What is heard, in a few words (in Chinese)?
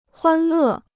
"欢乐", Chinese